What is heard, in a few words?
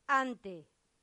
"ante", latin; santillana